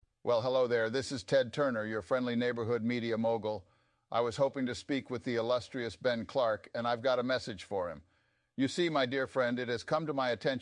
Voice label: voicemail